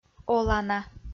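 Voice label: "олана", Komi, female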